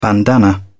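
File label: "bandana", British English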